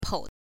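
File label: "pou", Mandarin pinyin, neutral tone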